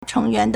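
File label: "chéngyuán", Chinese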